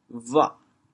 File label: "bhuah8", chaoyang; 潮阳; 白